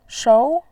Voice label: "shou2", voice